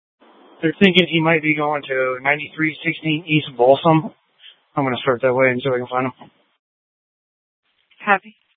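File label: Police Department Dispatch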